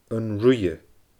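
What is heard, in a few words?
"An Ruighe", Scottish Gaelic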